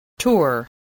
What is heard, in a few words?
"tour", voice